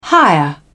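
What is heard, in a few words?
"hire", British English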